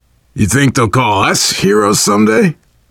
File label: getting revived, voice, biker; Left 4 Dead 2; left4dead2 dlc3; survivor